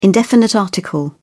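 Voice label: "indefinite article", British English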